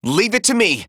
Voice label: VO en